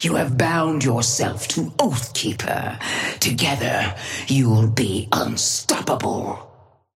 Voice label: female ally ghost start; Sapphire Flame voice line - You have bound yourself to Oathkeeper. Together you'll be unstoppable.